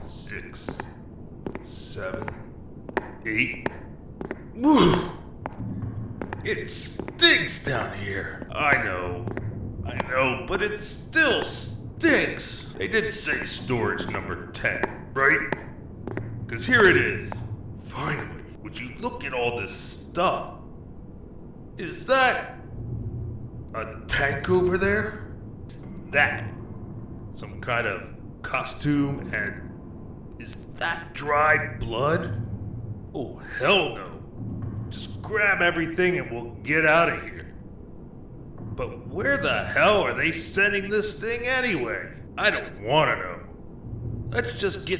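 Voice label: Military Grunt Marine Gruff General American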